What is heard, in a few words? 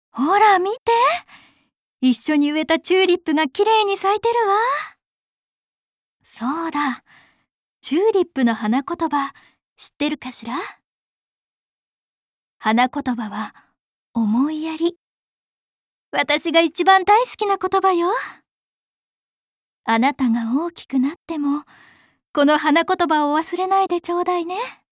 20s; 20代女性; アニメ